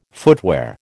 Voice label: "footwear", American

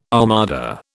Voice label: "armada", British

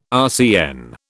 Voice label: "RCN", British

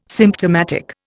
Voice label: "symptomatic", Indian

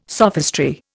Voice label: "sophistry", Indian

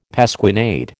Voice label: "pasquinade", American

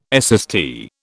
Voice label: "SST", British

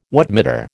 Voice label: "wattmeter", American

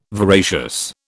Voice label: "voracious", British

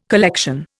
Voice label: "collection", Indian

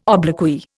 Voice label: "obloquy", Indian

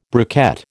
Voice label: "briquette", American

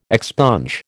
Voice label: "expunge", American

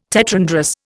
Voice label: "tetrandrous", Indian

